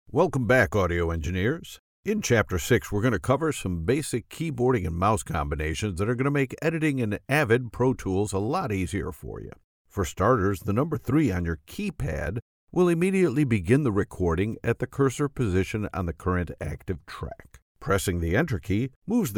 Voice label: male american voice over narrative, english american